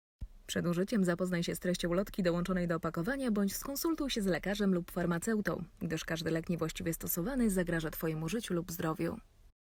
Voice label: polish medicine ad ending

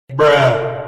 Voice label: "bruh" with reverb

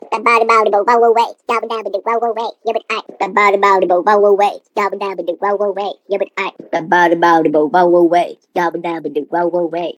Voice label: yappin

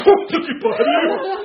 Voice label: kid

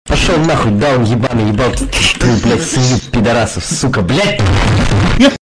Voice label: angry russian

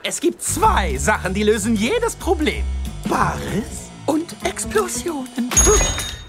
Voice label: german; junkrat; bares und explosionen

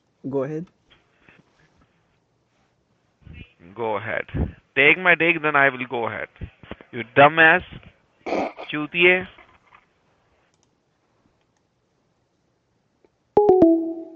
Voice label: swearing scammer

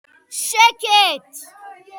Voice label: sheket hebrew

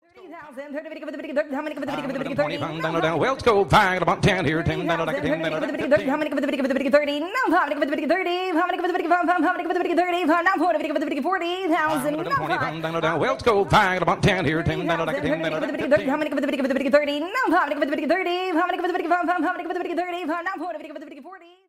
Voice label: auction english

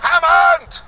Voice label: yells; clarkson; hammond

